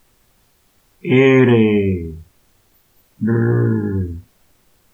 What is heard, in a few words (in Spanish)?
r suave; consonantes